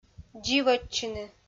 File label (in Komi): "дзивӧдчыны", Komi